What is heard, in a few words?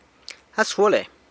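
"As guale", San Lucas Quiavini Zapotec; Hey man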